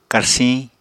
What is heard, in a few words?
"karsiñ", Apatani